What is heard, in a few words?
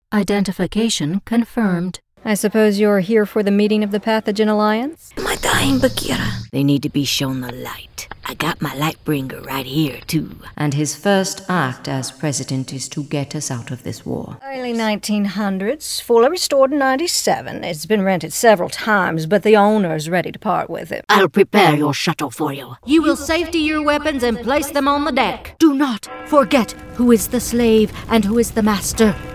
videogame and drama